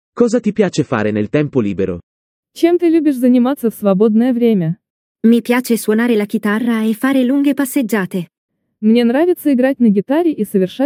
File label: dialogo it ru; Итальянский на слух